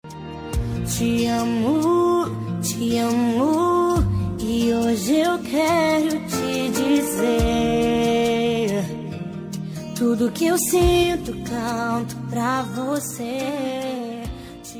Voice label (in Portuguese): dn gospel marido fem, dia dos namorados para marido evangelica voz feminina